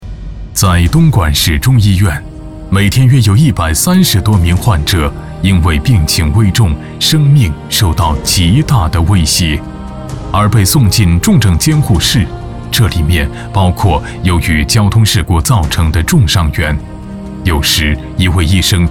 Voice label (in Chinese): 男, 宣传片-中医院-大气-有感情